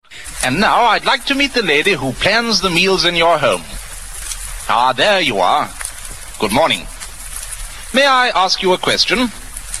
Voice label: jelly commercial; rowntree's

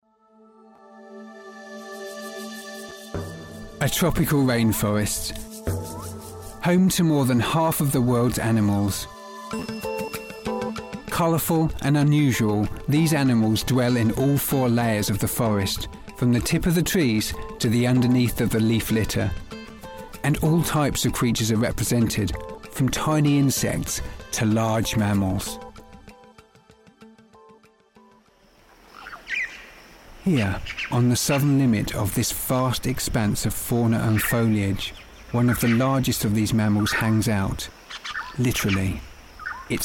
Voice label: natural history narration

